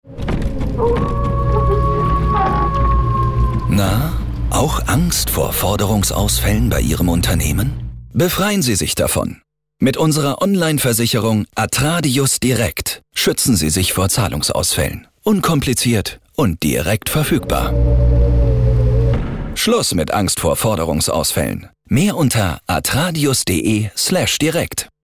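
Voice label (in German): Kreditversicherung, Spots, Männer; Astradius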